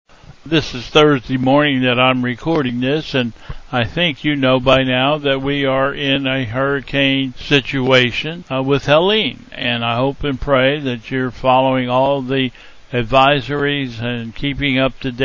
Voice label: Welcome and Announcements